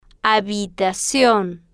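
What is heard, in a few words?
"habitacion", Spanish, female, slow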